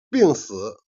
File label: "jian", Chinese